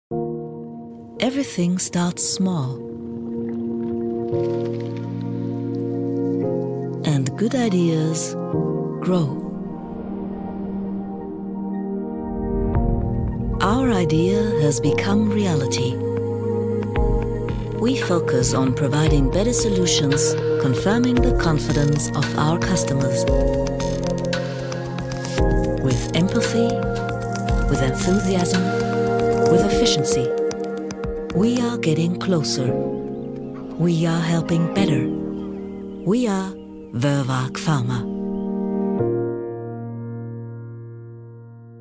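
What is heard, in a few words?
Imagefilm Mix englisch Anlagenbau; Bamberg, Bayreuth, Coburg, Nürnberg; Muttersprache Deutsch; woerwag pharma; west midlands rail; suelze-klein anlagenbau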